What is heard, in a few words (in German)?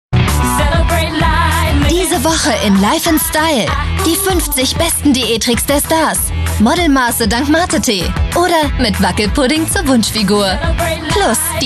life style werbung